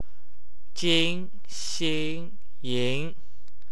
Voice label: "ing", Wu Chinese